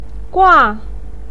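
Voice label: "gua" fourth tone, chinese, voice pinyin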